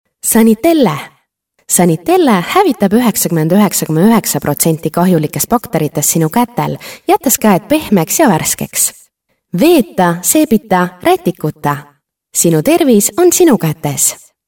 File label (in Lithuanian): MOT Estų balsas, moteris